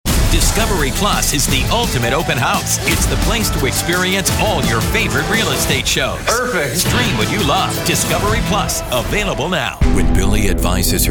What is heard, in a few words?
promo men